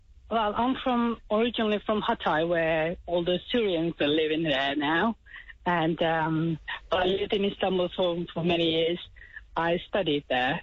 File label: turkish caller breaks down over state of country